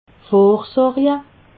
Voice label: "vorsorje", alsace

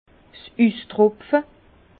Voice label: "ustropfe", Bas Rhin; vin; gouttage

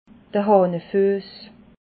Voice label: "hahnefuess", alsace

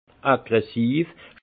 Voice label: "aggressiv", alsace; vin; agressif

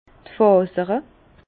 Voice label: "fasere", Bas Rhin; vin; filaments

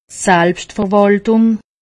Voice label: "selbstverwaltung", alsace; medecine

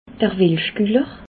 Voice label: "welschguller", Alsace

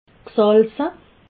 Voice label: "gsalze", alsace

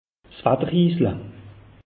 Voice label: "watter hisla", alsace; meteo

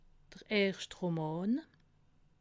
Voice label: "roman", alsace

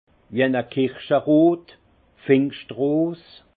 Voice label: "kirscherot", alsace; vin; pivoine

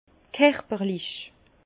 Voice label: "kerperlich", Bas Rhin; vin; charpenté

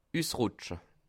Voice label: "usrutsche", alsace

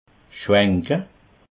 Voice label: "schwenke", alsace

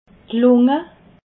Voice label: "lunge", alsace

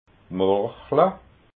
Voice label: "morchla", alsace

